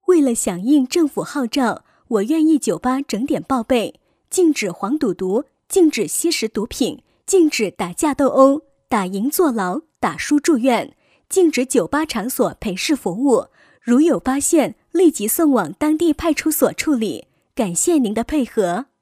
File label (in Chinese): 女【提示】普通话-酒吧提示